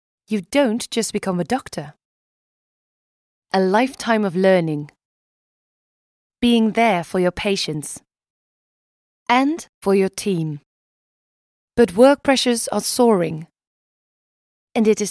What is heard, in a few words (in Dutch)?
Vertrouwd zorgzaam vriendelijk duidelijk Brits Engels